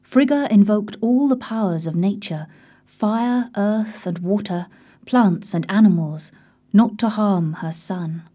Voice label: GIRL; XMSMAGIC

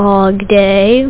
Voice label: dog, Pig Latin; WordMatch

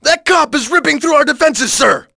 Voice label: panic, thug; SINDEMO; bank

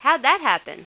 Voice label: "How'd that happen?", Jen; Spades Deluxe 1.6

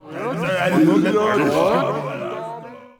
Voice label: men murm

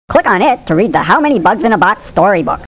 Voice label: Narrator

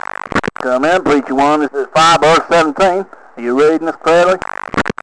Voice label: chat

